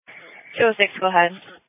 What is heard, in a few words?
Police Dispatch